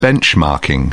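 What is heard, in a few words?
"benchmarking", English, British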